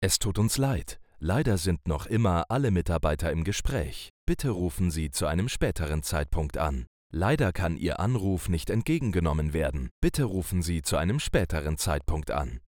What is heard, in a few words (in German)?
telefonansage